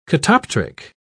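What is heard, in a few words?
"catoptric", British English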